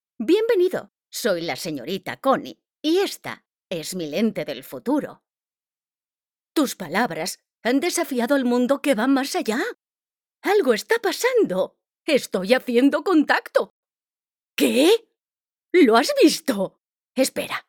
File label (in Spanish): personaje, banco de voces